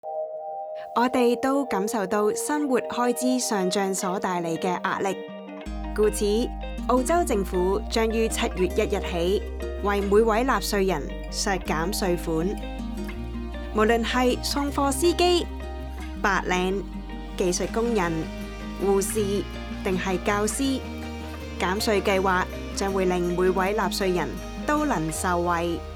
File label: radio, Traditional Chinese